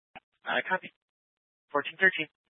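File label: Troop 2A Dispatch, scanner audio recording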